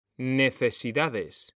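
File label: "necesidades", Spanish; mekanta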